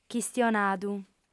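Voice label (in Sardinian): "chistionadu", female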